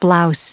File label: "blouse" en-us recite